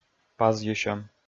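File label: "пазйысьӧм", Komi, male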